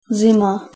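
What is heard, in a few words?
"zima", Czech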